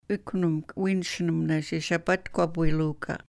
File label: ikwni-mk i-winshni-mnash ishapatkwapwiluuka, sahaptin